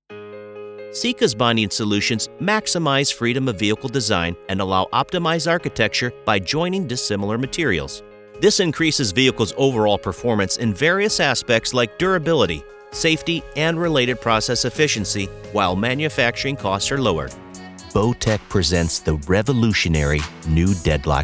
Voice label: Narration

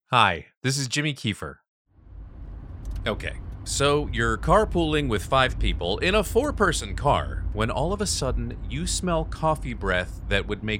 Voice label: Conversational

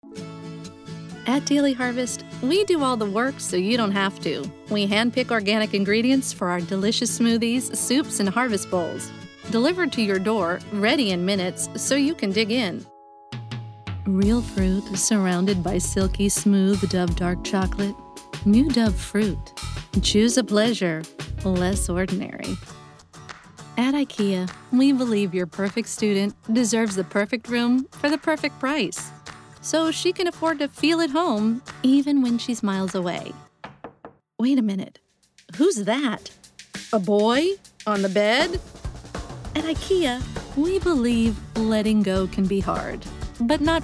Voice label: commercial

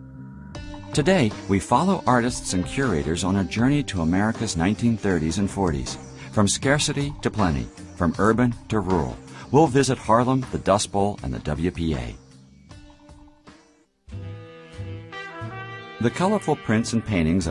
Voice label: Podcast; Smithsonian

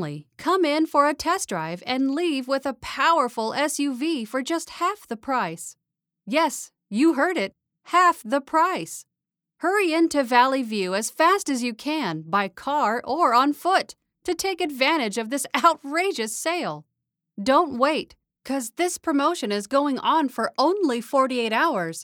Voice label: automotive ad

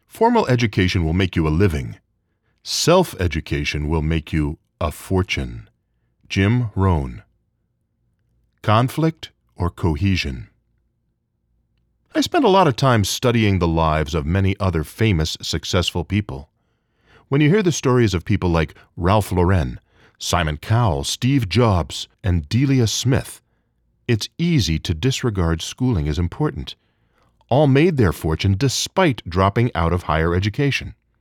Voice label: authoratative

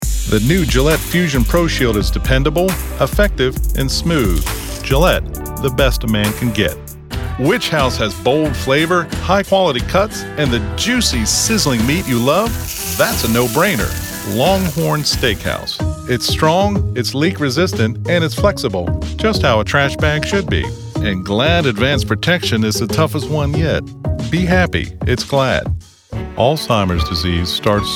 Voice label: Commercials

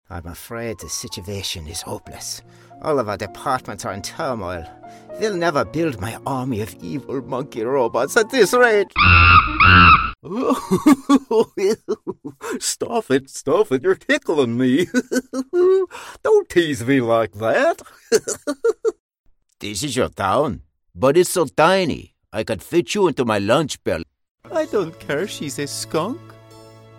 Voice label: Cartoon Character

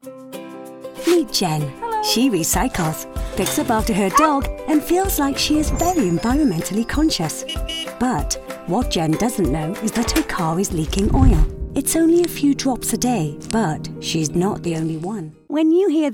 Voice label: Explainer Video

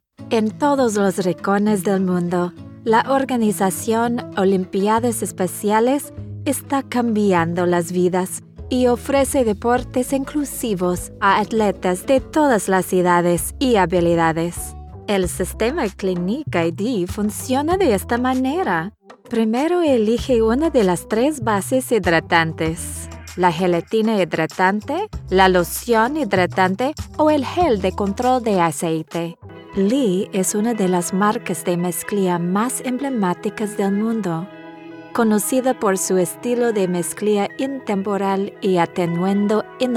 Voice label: Corporate Narration - SPANISH

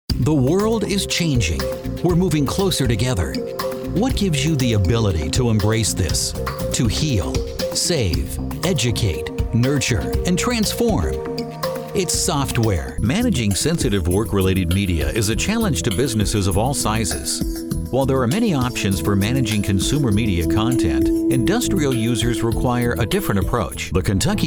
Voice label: Corporate

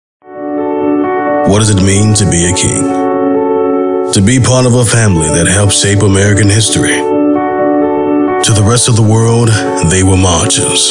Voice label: Narration

